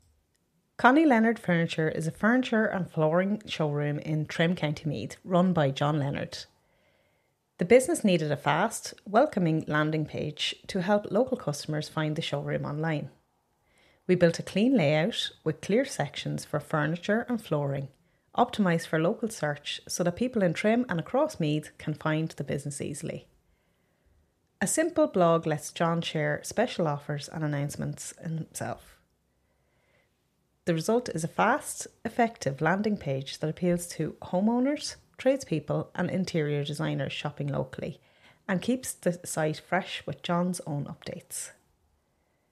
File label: audio narration; Connie Leonard Furniture